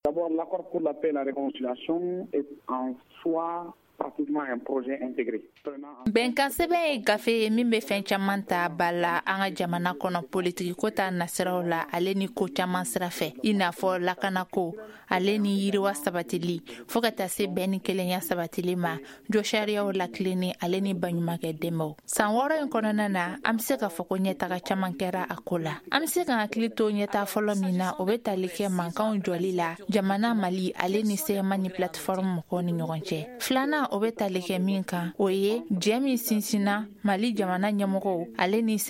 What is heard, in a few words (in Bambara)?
magazine bamanankan